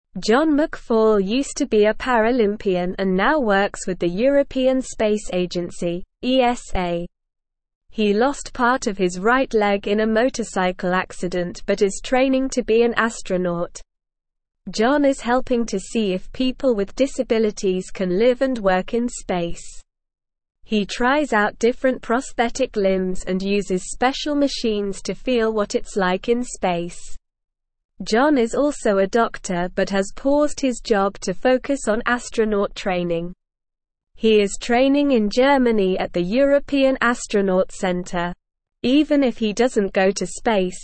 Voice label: English SLOW Reading; John McFall From Sports Champion to Space Explorer

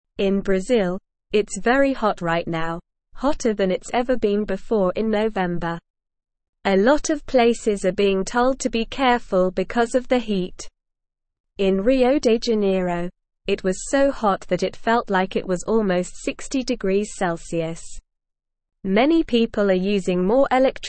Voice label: English Lower Intermediate SLOW Reading; Hot Weather Alert Brazil Experiencing Record Breaki; English Newsroom

